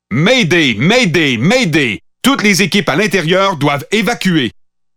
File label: Pathfinder French Standard Canadian